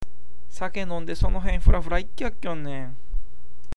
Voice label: ikkyaku, awaji ben

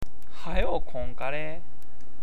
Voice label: "kare", awaji ben

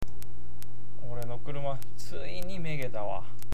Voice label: "megeru", awaji ben

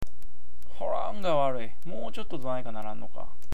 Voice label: "nnga", awaji ben

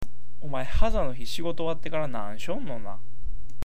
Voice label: "haza", awaji ben

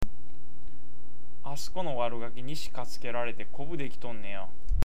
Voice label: "katukeru", awaji ben

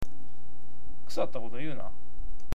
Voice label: "kusatta", awaji ben

